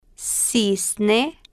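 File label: "cisne" Spanish, female, slow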